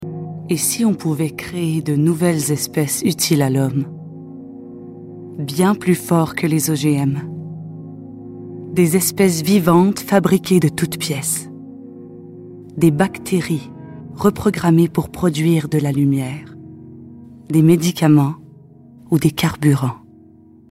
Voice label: narration